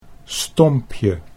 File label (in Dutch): "stompje", Dutch